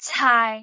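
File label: "caai6", Cantonese; microsoft Hiu Maan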